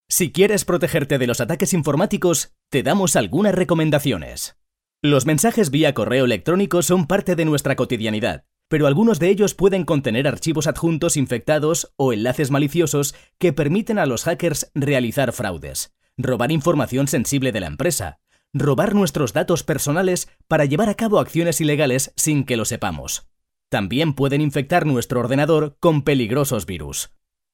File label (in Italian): spagnolo spa